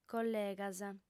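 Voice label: "collegas", female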